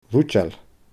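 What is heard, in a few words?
"ruideil", Scottish Gaelic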